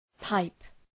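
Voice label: "pipe", English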